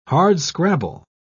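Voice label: "hardscrabble", British English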